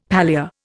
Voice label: "palea", Indian; Dictionary